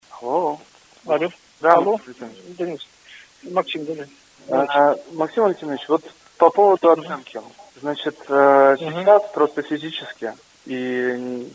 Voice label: phone talk